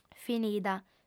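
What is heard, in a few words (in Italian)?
"finida", lingua sarda, female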